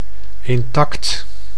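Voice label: "intact", Dutch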